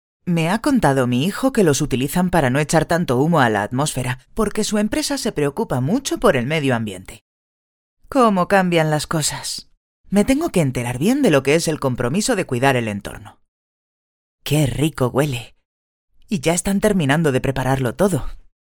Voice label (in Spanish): locutora abuela spanish voiceover; voces ancianos